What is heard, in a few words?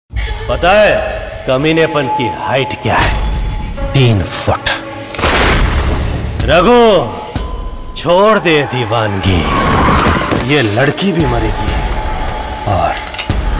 dialogue ringtone